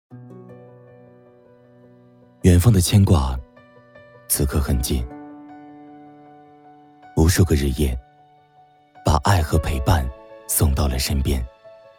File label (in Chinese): 走心叙述